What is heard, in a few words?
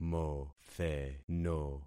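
"motheno", concatenated syllables; Affixing experiment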